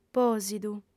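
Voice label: "pòsidu", Sardinian, female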